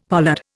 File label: "pollard", Indian; Dictionary